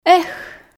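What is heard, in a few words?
"эх", Komi, female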